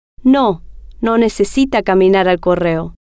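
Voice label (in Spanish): no Necesita Caminar Correo, spanish, female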